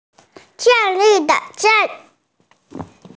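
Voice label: "zhan4", Mandarin Chinese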